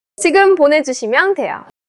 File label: neutral, monologue